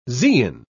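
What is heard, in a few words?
"zein", British English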